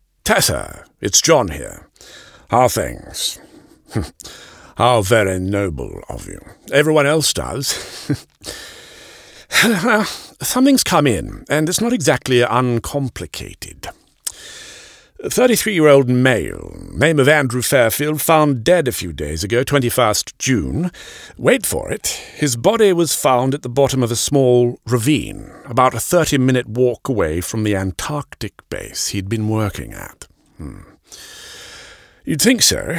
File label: Radio Drama UK RP accent